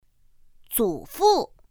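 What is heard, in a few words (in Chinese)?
zǔfù, Mandarin Chinese; pinyin2; pinyinji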